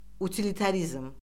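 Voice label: "utilitarizm", Azerbaijani; Orfoqrafiya Lüğəti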